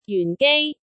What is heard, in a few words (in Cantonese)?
"jyun4-gei1", cantonese